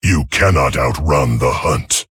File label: Male Tauren Threaten